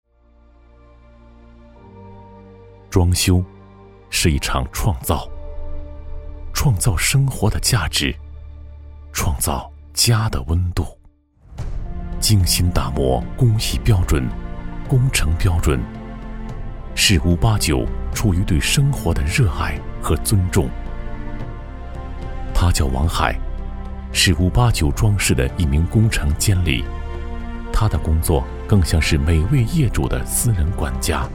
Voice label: 男 企业宣传

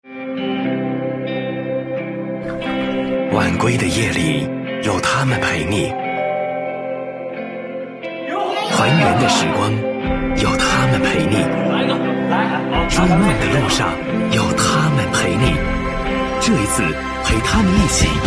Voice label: 男 TVC广告; 洋河梦之蓝音乐节

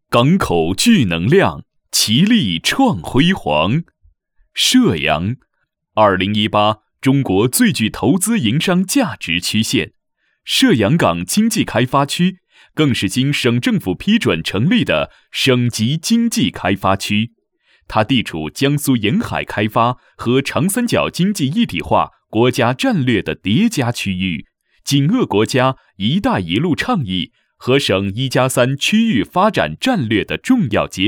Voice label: 年轻大气, 浑厚高亢, 招商片; 射阳港健康产业园; fangyan; nan

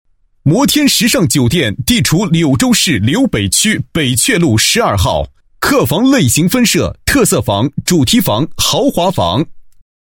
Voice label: 男 广告 酒店宣传广告

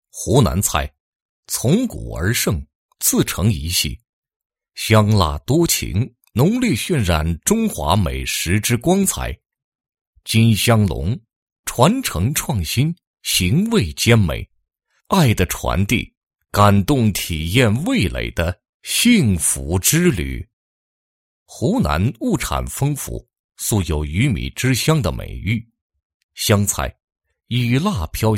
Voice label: 男 宣传广告 湖南菜宣传; 金湘隆

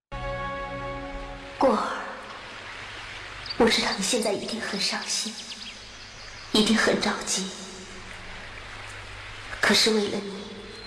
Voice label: 配音; 小龙女

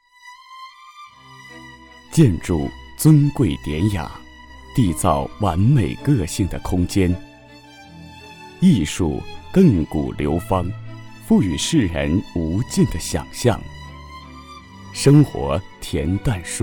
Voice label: 男 广告 高贵气质; 欧风华庭; putonghua

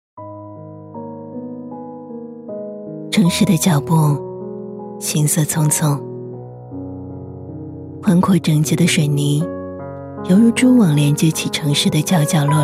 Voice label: 女 深沉独白 孤单; neixindubai; putonghua

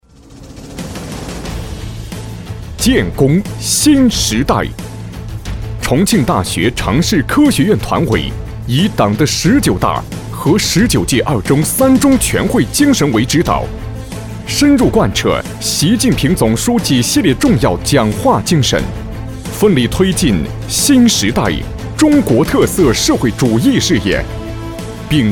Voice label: 男, 专题硬气系列; 建功新时代; qiyezhuanti; putonghua

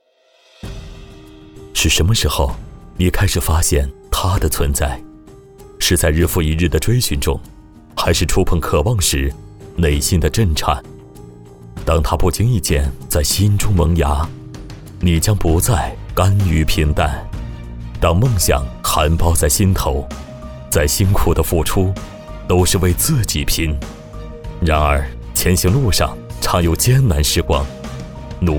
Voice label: 男 旁白 冷酷陈述; 常怀梦想

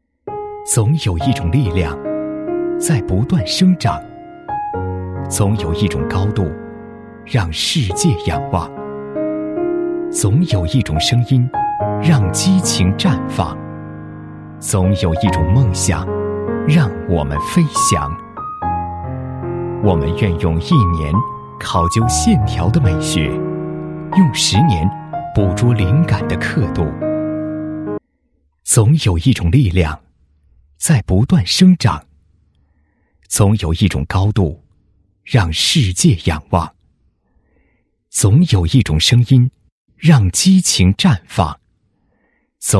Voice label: 男 宣传广告, 企业宣传广告; CTR

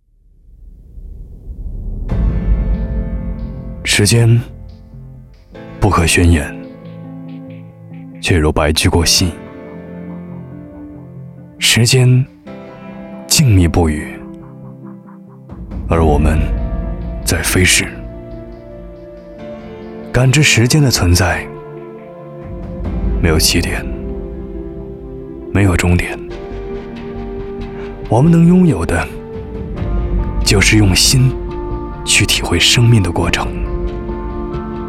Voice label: 男, 走心独白, putonghua, nan; 时间; neixindubai

